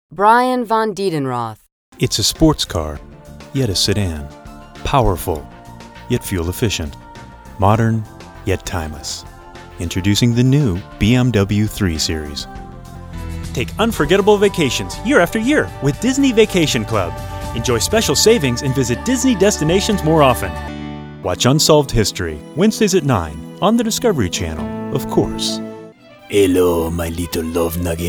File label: commercial